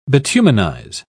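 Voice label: "bituminize", British English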